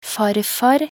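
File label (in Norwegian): "farfar", Norwegian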